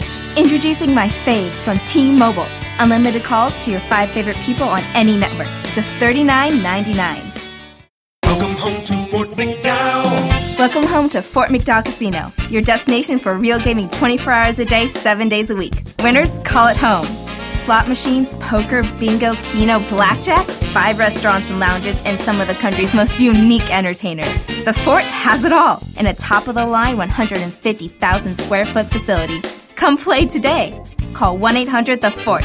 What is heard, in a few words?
voiceover female